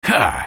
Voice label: effort, en US; Twisted Fate